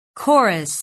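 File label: "chorus", English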